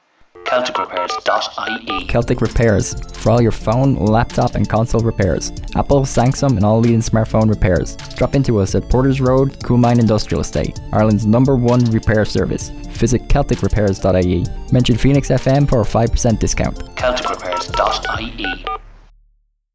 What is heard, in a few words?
Radio Advert; Celtic Repairs